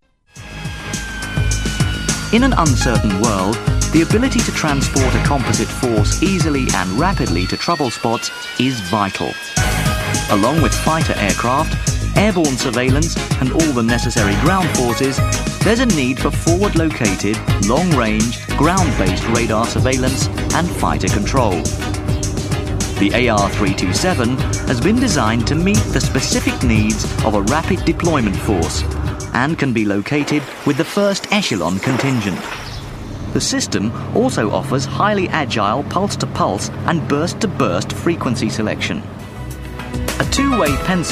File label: Narration; Radar; Vocal Point